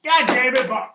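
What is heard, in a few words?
god dammit burp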